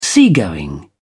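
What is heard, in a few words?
"seagoing", English, British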